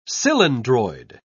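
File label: "cylindroid", British English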